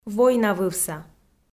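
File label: "войнавывса", Komi, female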